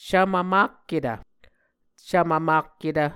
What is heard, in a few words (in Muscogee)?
"cvmvmakketv", Muscogee; Mvskoke Words with Repeated Consonants; Onvkv Ohhvyayices; A Dictionary of Creek/Muskogee; SIL International